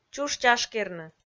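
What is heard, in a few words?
"чуш-чашкерны", Komi, female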